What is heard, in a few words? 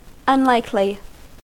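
"unlikely", American English; pronunciations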